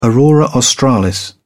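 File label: "aurora australis", British English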